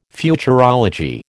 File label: "Futurology", American